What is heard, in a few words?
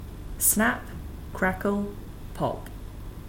Snap crackle, tongue twisters